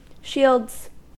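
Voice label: "shields", US English; pronunciations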